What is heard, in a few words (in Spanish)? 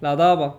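"la tapa", Cuba, Havanna; Kuba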